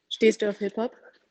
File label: Hiphop, German